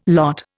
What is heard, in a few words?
"lot", Indian